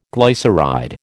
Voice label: "glyceride", American